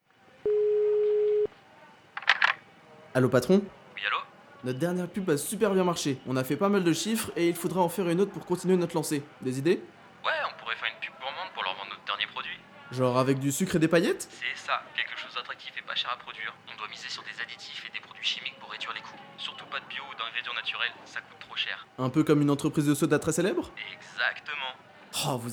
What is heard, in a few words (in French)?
spot publicitaire